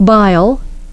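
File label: "bile", English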